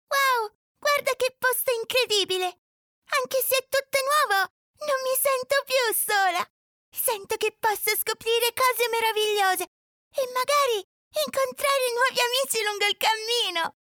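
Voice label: Italian, female, voice over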